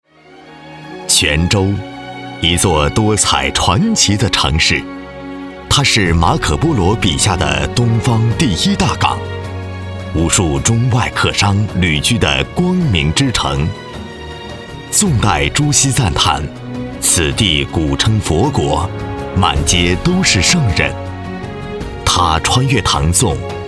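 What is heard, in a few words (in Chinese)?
专题大气磁性宣传片; 泉州商会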